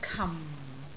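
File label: "kam4", Cantonese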